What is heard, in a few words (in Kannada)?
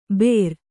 "ಬೇರ್", Kannada